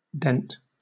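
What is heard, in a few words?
"dent", British English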